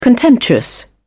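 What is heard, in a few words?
"contemptuous", British English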